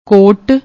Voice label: "kott", Punjabi; ਕੋਟ